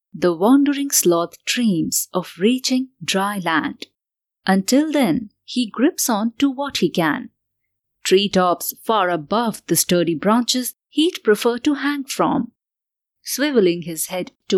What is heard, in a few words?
English Documentary